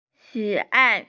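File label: "xi3ai4", Mandarin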